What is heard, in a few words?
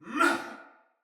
Attack Soldier Male; Battle; Barks; Dialog; Sinecure Soldier Male A